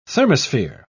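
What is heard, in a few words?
"thermosphere", British English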